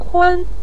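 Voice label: "kun", Mandarin Chinese, neutral tone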